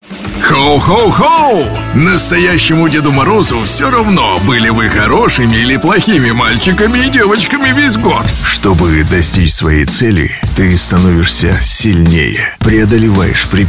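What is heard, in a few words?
General, Russian; voice demos; GoLocalise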